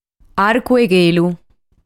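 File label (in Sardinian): "Arcu De Chelu", Sardinian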